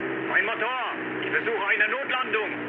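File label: German damaged engine mood med, speech; War Thunder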